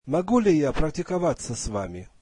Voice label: russian Can I practice with you